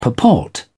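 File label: "purport", British English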